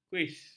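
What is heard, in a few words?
"kuiz", Malay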